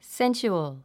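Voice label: "sensual", English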